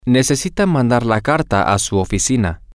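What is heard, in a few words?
Spanish, male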